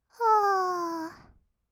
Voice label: 「あー……」, kawaii voice; 1200 kawaii voice!!; 色々なワンショット

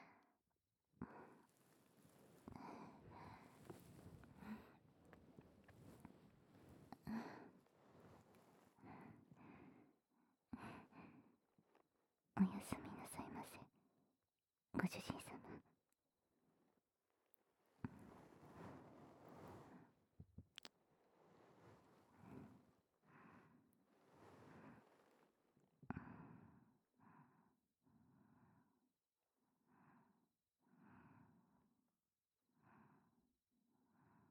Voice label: 添い寝; ホタルと一緒に添い寝

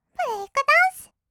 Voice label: ブレイクダンス, kawaii voice; 1200 kawaii voice!!; 音楽ジャンル

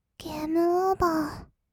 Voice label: ゲームオーバー, kawaii voice; ASMR; 色々なワンショット; 1200 kawaii voice!!

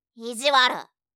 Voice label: "いじわる", 相づち・反応系【ネガティブ】; ASMR

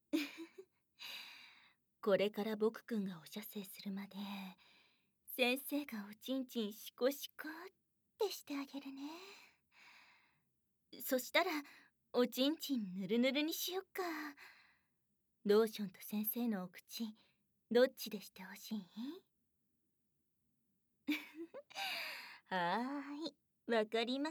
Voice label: 囁き 耳舐め 手コキ でお射精の練習（囁きブースト 効果音大きめ）; ASMR; トラック１耳舐め差分

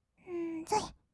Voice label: "ぞい", kawaii voice; ASMR; 色々なワンショット

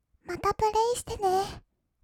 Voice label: またプレイしてねー, kawaii voice; ASMR; 1200 kawaii voice!!; 音ゲー

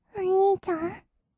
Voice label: "おにいちゃん", kawaii voice; 1200 kawaii voice!!; 二人称

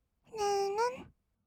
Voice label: "るんるん", kawaii voice; 1200 kawaii voice!!; 色々なワンショット

